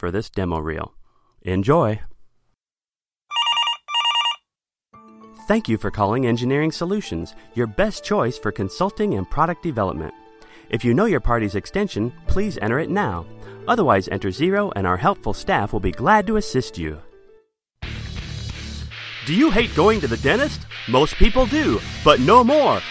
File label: voiceover; demo reel